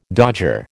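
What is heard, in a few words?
"dodger", American English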